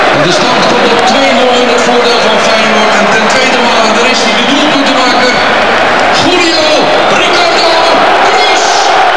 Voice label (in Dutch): Stadionspeaker